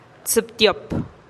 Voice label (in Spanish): "tsïptiop" doce, teotitlan